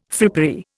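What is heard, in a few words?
"frippery", Indian English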